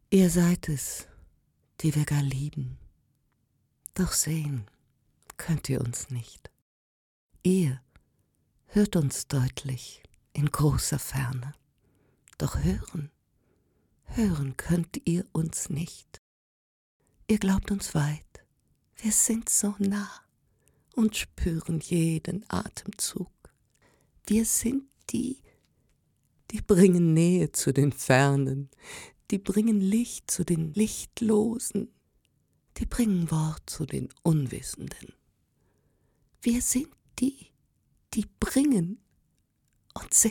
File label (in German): traurig, frauen; sprecher